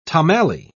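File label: "tomalley", British English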